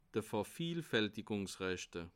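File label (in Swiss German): "vervielfaltigungsrechte", Bas Rhin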